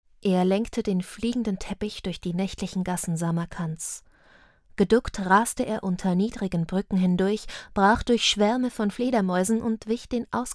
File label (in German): Fantasy, sprecherinnen